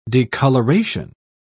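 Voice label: "decoloration", British English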